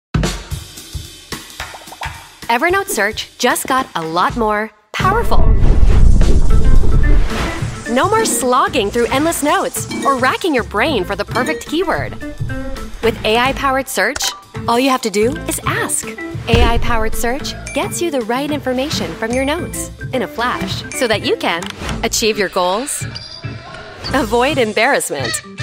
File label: english american commercial